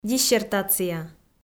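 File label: "диссертация", Komi, female; kpv audio dict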